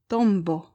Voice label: "tombo", esperanto; vortoj

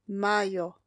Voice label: "majo", esperanto; vortoj

